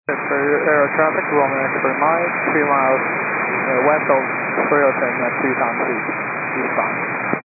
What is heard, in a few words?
122.925 MHz; scanner radio